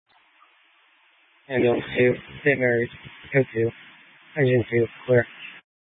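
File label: Fire Dispatch, scanner audio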